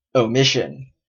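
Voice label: "omission", Canadian English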